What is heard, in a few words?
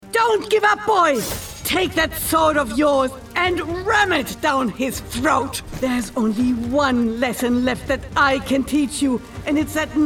Character English